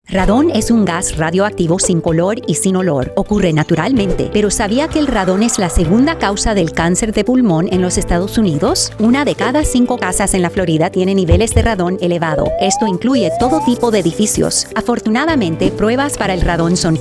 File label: radio psa spanish